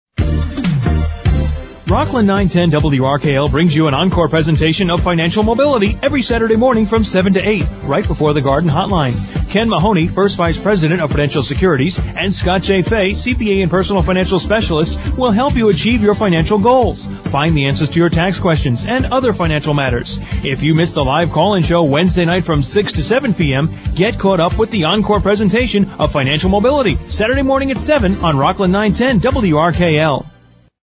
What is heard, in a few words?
fm promo